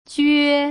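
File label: "jue", Putonghua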